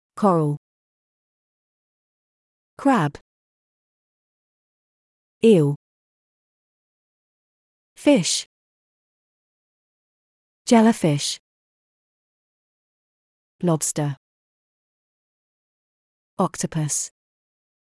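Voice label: British; oceans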